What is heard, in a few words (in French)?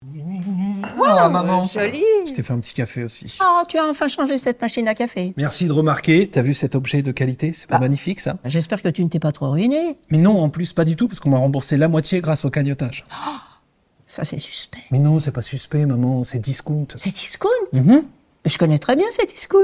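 Promo Web, Voix Off Femme; CDISCOUNT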